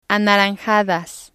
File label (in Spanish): "anaranjadas", spanish